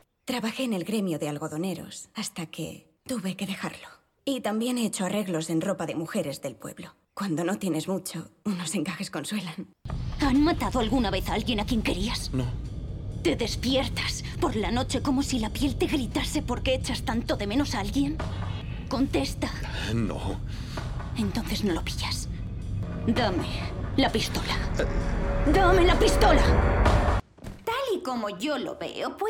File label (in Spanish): Doblaje